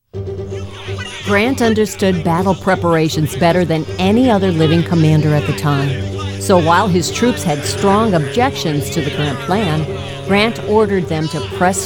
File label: english american